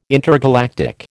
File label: "intergalactic", American